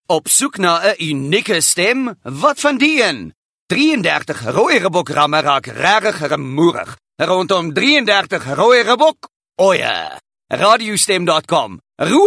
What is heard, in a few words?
Afrikaans Hard Sell